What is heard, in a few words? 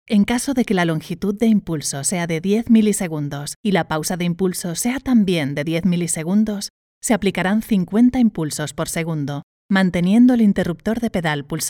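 Spanish Castilian Female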